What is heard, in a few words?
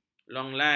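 "longlai", Malay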